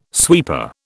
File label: "sweeper", British